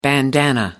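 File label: "bandanna", British English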